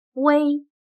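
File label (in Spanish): "微", chino mandarin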